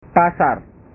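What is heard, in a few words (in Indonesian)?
"pasar", Indonesian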